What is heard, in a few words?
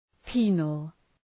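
"penal", English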